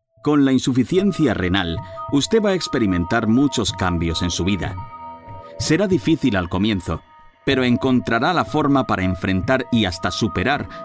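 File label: Spanish Spain, male, voice over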